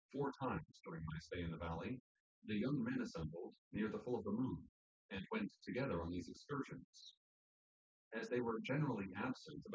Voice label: male4 synthconv; SiSEC08; SiSEC underdetermined